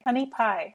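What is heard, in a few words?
"honey pie", English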